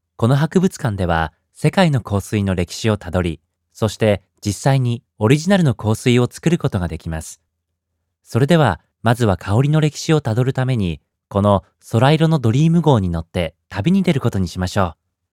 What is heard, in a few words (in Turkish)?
Japonca Erkek; seslendirme